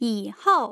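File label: "yihou", Mandarin Chinese, tone pairs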